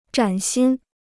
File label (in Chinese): "崭新", Chinese